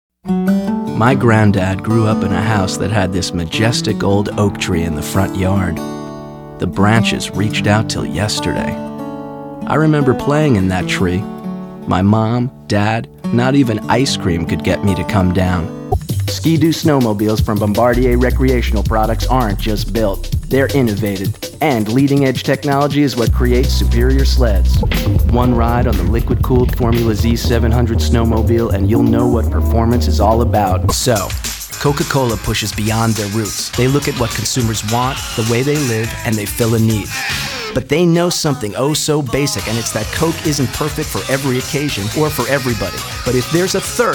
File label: Narration